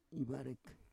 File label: "ivarek", Roon